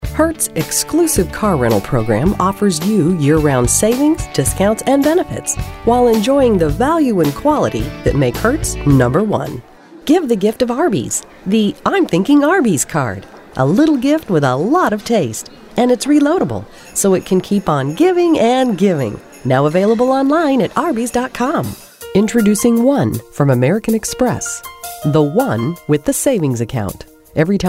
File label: commercials